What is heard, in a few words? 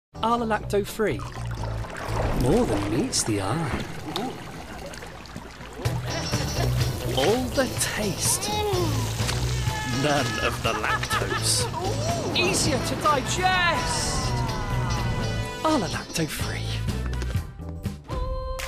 Commercial Neutral